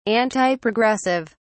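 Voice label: "antiprogressive", British English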